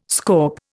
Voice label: "scaup", Indian